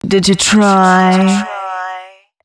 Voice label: female spoken hooks, dance ultra voice

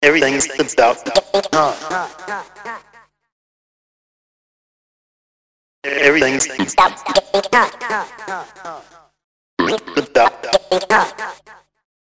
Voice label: voice FX, industrial

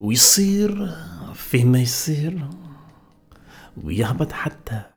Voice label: POET, POET VOICE, Arabian Traditions; USB Soundscan vol.43; AKAI

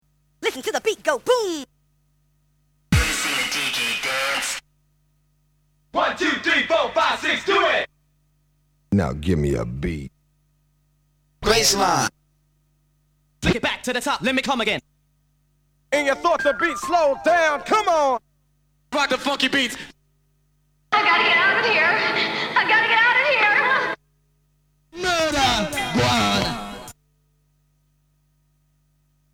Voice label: Vocal Drops; Kniteforce; 1000 Samples Volume 1

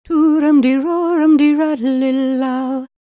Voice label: WELSH F, World Voices

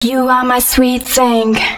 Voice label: female, spoken hooks

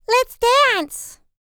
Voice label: L LICKS, FEM SPOKEN, R&B Dance; Spectrasonics Vocal Planet

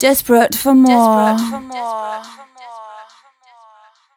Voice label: f 140 spo, fem Vocals

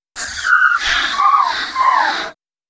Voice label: female c#133, adlips

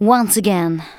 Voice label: "ONCE AGAIN", SPOKEN; Techno Trance Essentials

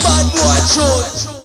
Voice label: Ruling Bad Boy Tune, Vocals

